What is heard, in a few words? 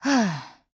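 LOW MOOD Japanese; ColtWalker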